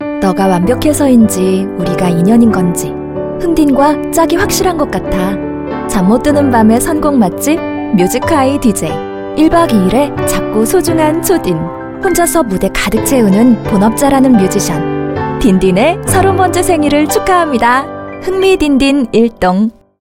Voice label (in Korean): 20대 사랑스러운; 딘딘의 뮤직하이